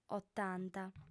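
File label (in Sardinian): "otanta", female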